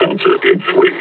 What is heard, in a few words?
announce attack grenade; combine charger; Half Life Alyx